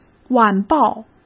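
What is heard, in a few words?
"晚报", Mandarin Chinese; hsk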